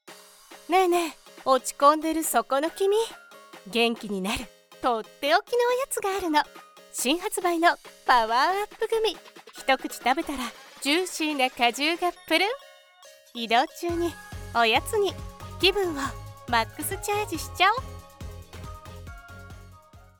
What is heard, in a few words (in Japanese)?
新発売グミ：ポップ 親しみ